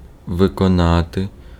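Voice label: Ukrainian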